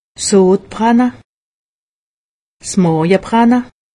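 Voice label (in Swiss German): "sodbranne", Alsatian; medecine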